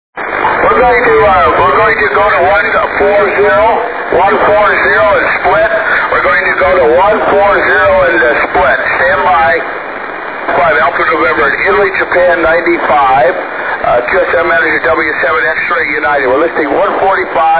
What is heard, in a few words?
ssb, 6M audio; cycle22; 50Mhz